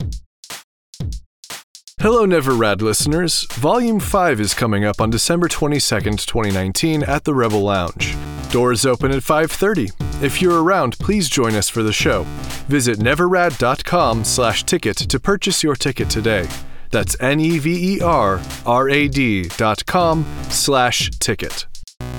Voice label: announcement